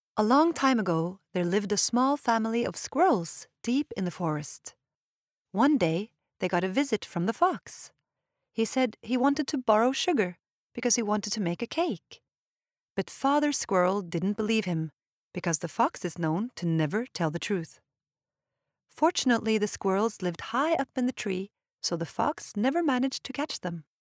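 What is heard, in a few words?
no english, Norwegian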